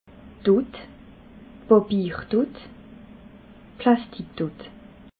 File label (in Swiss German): "dut", alsace